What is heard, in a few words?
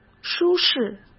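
"舒适" Mandarin Chinese; hsk; chinese-flashcards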